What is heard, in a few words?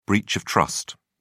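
"breach of trust", British English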